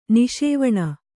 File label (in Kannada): "ನಿಷೇವಣ", kannada